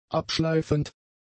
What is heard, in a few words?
"abschleifend", German; adjectives